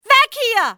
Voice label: get away, civ fmale; XBOX